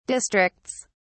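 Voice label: "districts", British English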